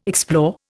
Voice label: "explore", Indian